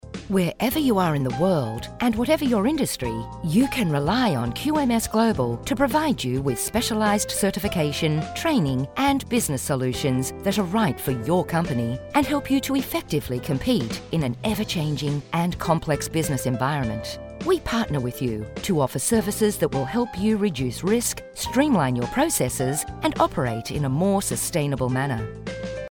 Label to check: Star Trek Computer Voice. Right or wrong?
Wrong